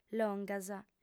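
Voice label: "longas", female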